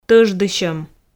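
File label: "тӧждысьӧм", Komi, female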